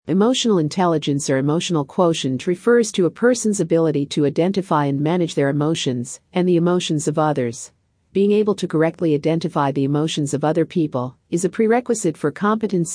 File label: Female; Emotional Intelligence